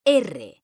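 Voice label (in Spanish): "ERRE" Spanish, female; mekanta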